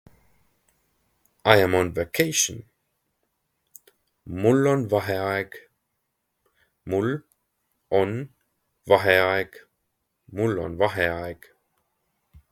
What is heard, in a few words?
I am on vacation, Estonian phrasebook; the talking estonian phrasebook